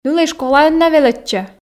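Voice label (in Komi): нылӧй школаын на велӧдчӧ, Komi, female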